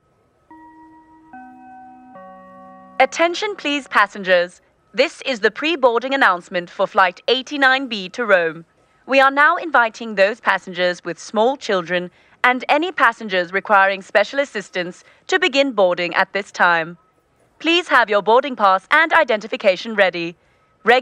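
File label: Accent British, voice artists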